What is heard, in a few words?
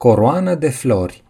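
"wreath", Romanian; gcompris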